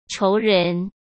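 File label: "仇人", Mandarin Chinese; chinese-flashcards; hsk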